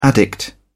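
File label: "addict", English, British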